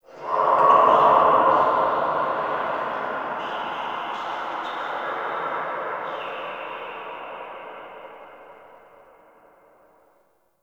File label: Prayer Whisper; Lab; Warframe; Tau; Protoframe Room; Ambience